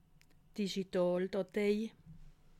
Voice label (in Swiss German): "digitaldatei", alsace